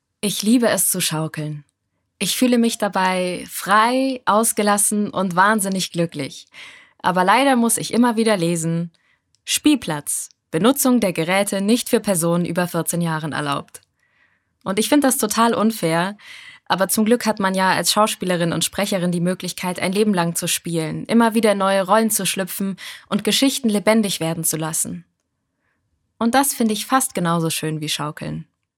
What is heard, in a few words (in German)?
Natuerliche Stimme; voice